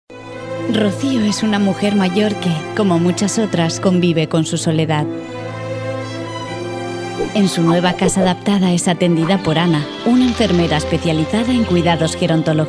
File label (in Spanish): Corportativo